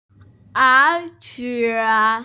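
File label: "er qie", Mandarin Chinese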